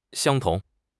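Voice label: "xiang1 tong2", Mandarin Chinese; HSK4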